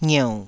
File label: "ngieu3", taiwanese syllables